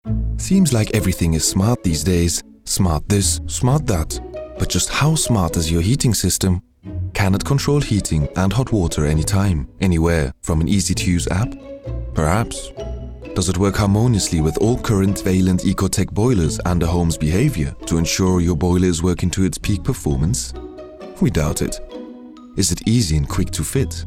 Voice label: Commercial English Continental European Accented; Vaillant VSmart